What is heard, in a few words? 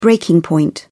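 "breaking point", British English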